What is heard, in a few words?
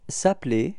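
"appeller", French; frans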